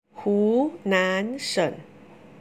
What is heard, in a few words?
hunan; 湖南省